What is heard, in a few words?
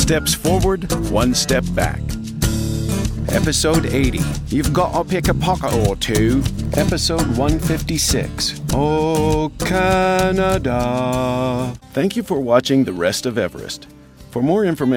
International Video Podcast Announcer; The Rest of Everest